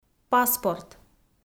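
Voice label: "паспорт", Komi, female; audio dict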